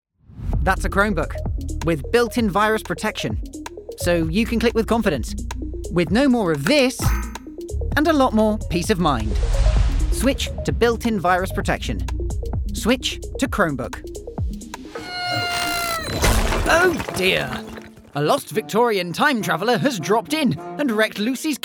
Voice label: commercial